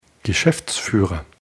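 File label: "geschäftsführer", German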